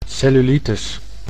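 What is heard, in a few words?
"cellulitis", Dutch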